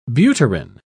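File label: "butyrin", English, British English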